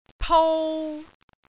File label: "pou3", cantonese